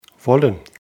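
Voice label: "wollen", German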